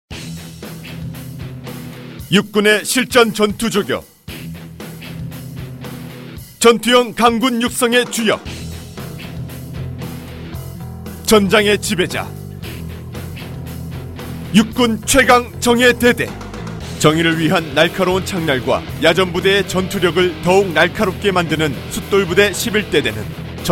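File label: Korean, male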